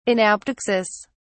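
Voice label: "anaptyxis", British English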